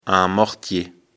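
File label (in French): "mortier", French; afrikalan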